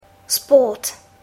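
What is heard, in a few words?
"sport", English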